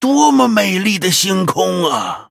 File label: Male Dryad Greetings; zh